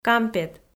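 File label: "кампет", Komi, female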